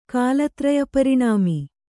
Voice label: "ಕಾಲತ್ರಯಪರಿಣಾಮಿ", Kannada